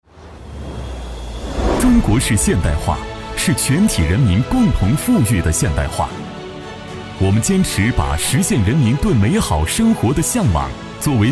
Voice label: 男 党政

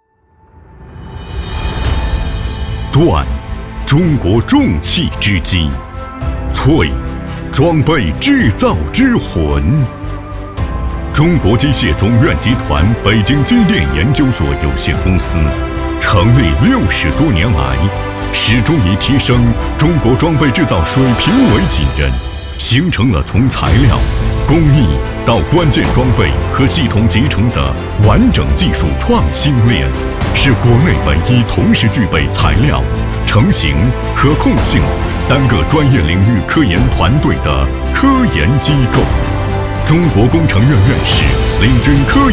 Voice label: 男 企业宣传片; 中国机械总院集团

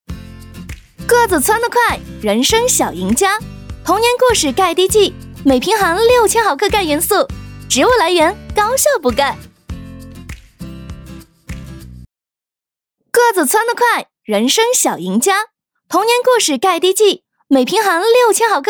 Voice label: 女 活力广告

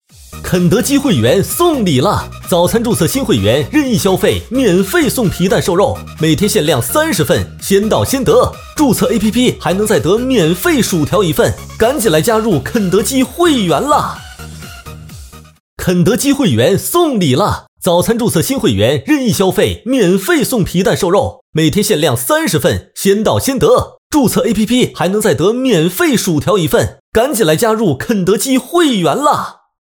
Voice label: 男, 促销; 肯德基

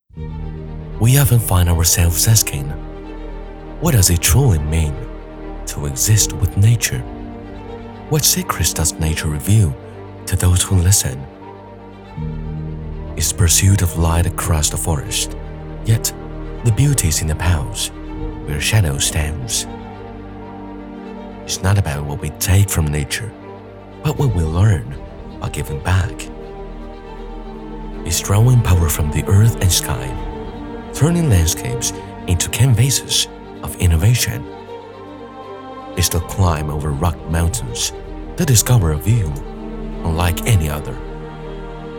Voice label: 男 英文科技 讲述感旁白

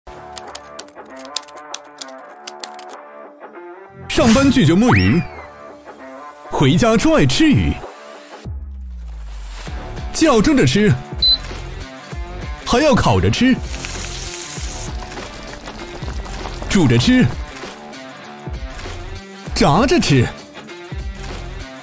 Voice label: 男 广告 品牌广告; 奥田白小厨

